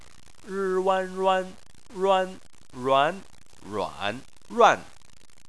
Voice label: "ruan", Chinese

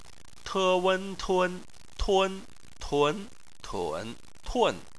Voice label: "tun", Chinese

